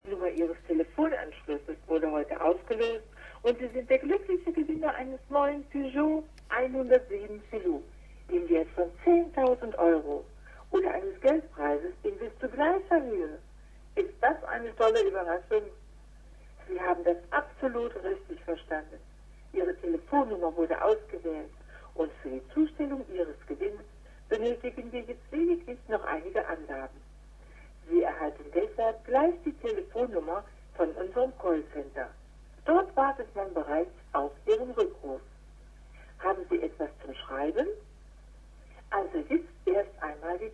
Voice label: telephone lottery spam on answering machine German